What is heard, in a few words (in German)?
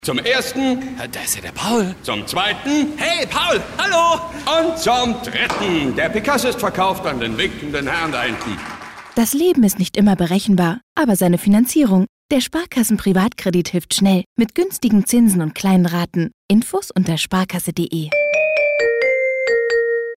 Werbung Sanft